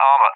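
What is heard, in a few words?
"armor", English, UK; Target; DCS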